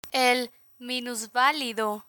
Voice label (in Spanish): "el minusvalido", Spanish; medical medicine vocabulary; learn spanish